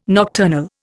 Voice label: "nocturnal", Indian